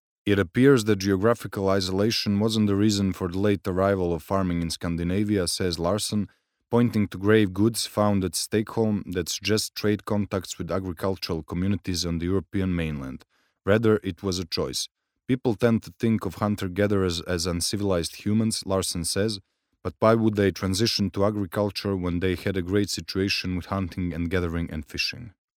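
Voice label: Montenegrin, male, voice over; montenegro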